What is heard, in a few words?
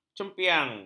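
"cempiang", Malay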